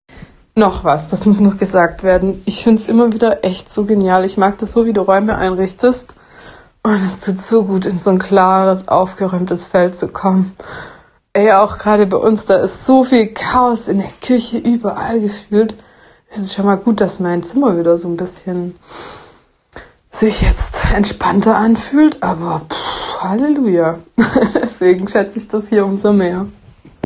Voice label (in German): sprachnachricht